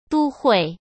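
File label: "都会", Mandarin Chinese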